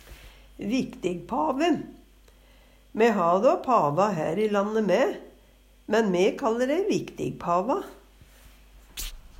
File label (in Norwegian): viktigpave, Numedalsmål; Me ha pava her i lande mæ, men her kalle me dei viktigpava.